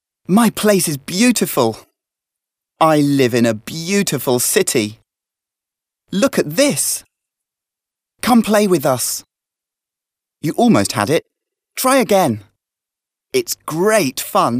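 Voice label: British male children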